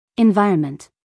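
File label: "environment", American English, female; everyone-can-use-english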